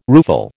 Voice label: "rueful", American

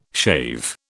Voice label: "shave", British

